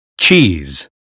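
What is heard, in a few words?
"cheese", American English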